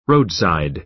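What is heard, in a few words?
"roadside", American English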